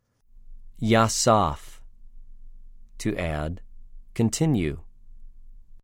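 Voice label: "יָסַף", Hebrew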